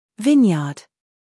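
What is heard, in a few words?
"humor", British; vineyard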